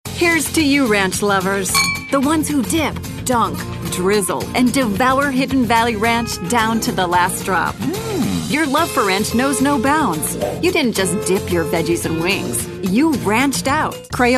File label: Commercial